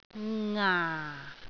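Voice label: "ngaa4", Cantonese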